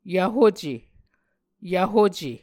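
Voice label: "yvhuce", Muscogee word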